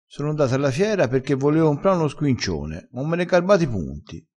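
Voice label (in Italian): "squincione", vocabolario dialettale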